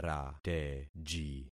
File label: "rateji", concat syllables; Affixing experiment